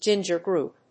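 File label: "ginger group", English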